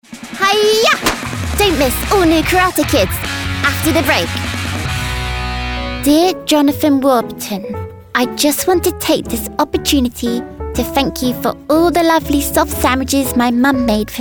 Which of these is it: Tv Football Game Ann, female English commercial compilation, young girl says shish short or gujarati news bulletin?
female English commercial compilation